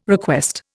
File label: "request", Indian